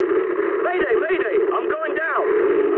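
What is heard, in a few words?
English aircraft destroyed mood med; War Thunder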